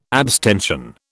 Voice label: "abstention", British